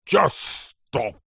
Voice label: human old grumpy man english says angrily just stop; zapsplat